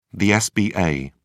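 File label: "sba", English, British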